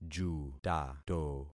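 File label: "jutato", concatenated syllables; Affixing experiment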